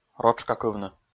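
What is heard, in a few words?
"ротшкакывны", Komi, male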